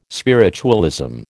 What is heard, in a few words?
"spiritualism", American